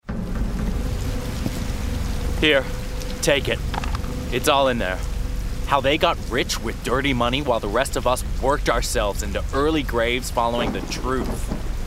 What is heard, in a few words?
Gaming Journalist US